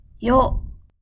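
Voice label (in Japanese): "よ", Japanese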